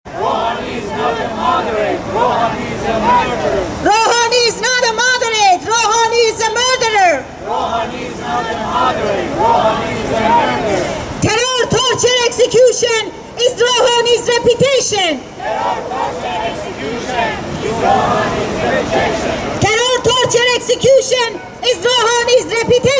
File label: Eng